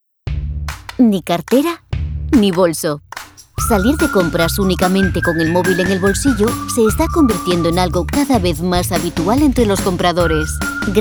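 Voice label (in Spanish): corporativo España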